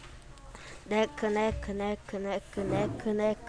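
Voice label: "neck", spoken word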